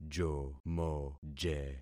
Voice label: "jomoje", concat syllables; Affixing experiment